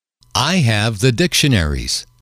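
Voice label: i have the dictionaries, English, male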